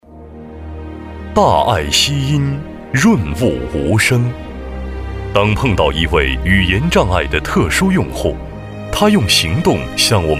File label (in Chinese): 男 宣传